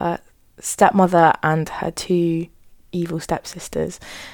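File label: Spontaneous Speech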